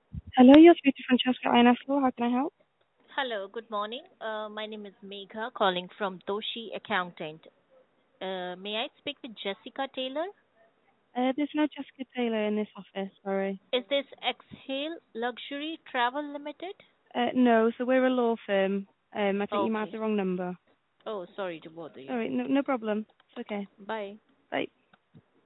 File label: call recording